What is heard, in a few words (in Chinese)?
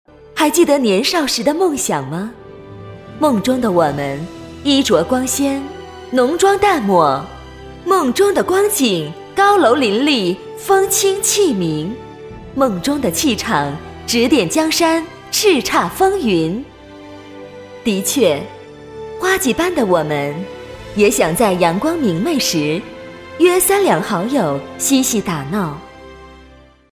女 晚会主持, 国语女声配音; 曾经的我们